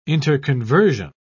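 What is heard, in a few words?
"interconversion", English, British English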